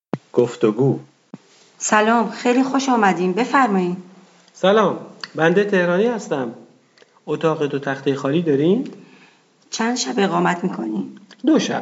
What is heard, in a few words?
Dialog, persian